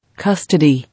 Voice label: "Custody", English